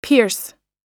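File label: "pierce", American English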